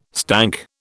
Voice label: "stank", British